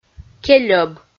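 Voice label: "кельӧб", Komi, female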